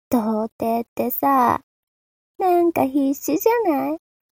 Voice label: mob 女子 台詞

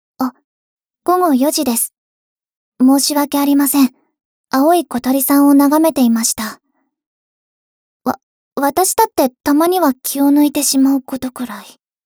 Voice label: 時報ボイス 電脳少女; ボイスコレクション

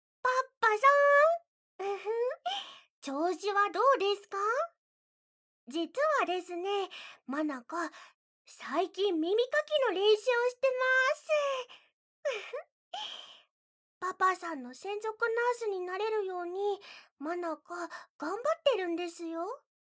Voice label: ママ保湿クリーム耳かき（効果音なし）; まなかママ

